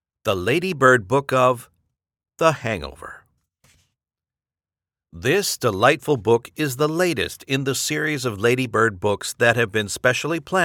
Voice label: english canadian audiobooks